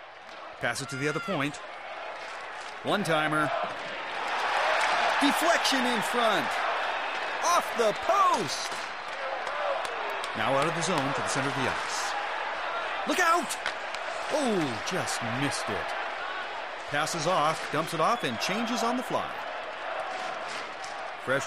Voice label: Television; Hockey Game With Announcer, Crowd And Players. From Close.; sports